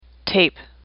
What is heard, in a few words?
"tape", English; AWL